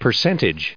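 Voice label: "percentage", us English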